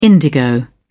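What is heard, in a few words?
"indigo", British English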